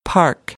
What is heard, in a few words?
"park", American English